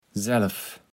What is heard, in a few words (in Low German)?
"Self", platt